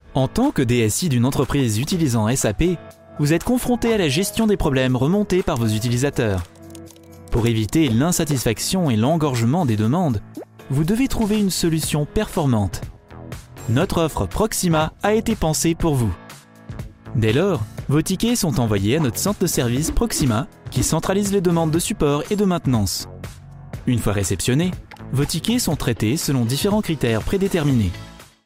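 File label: French, male, explainer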